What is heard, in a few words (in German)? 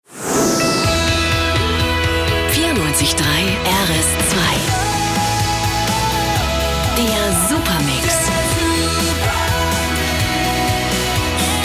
Stationvoice Jingle; RS2